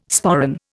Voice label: "sporran", Indian

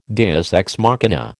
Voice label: "deus ex machina", American

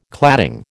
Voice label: "cladding", American

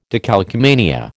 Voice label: "decalcomania", American

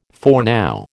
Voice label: "foreknow", American

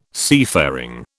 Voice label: "seafaring", British

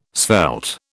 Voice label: "svelte", British

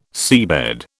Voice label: "seabed", British

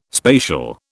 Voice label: "spatial", British

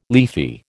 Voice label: "leafy", American

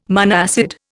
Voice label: "monoacid", Indian

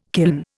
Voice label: "kiln", Indian

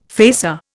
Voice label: "facer", Indian

